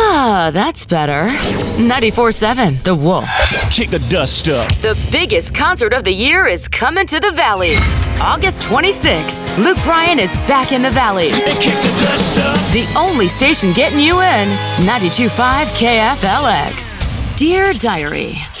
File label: IMAGING COUNTRY FORMAT